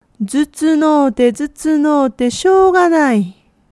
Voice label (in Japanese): "zutsunnai", woman; hogen